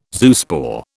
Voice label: "zoospore", British